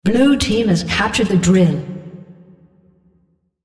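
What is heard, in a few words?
Drill blue Captured, female; Odin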